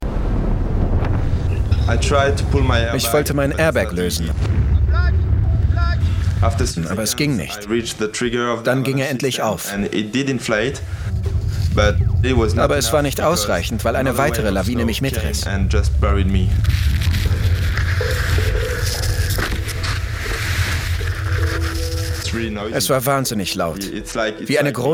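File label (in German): Voice Over, Männer; Wetterwahnsinn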